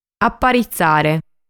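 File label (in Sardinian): "Aparitzare", Sardinian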